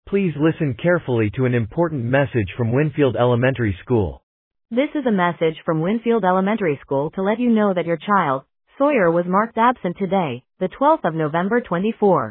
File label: Voicemail